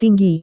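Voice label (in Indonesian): "tinggi", Indonesian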